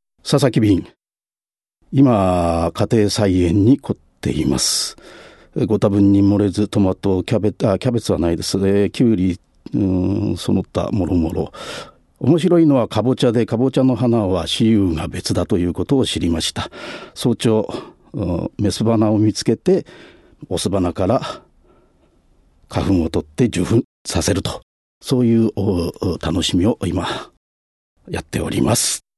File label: freetalk, male voice